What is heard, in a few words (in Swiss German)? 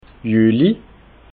"juli", alsace